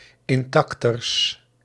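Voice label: "inexacters", Dutch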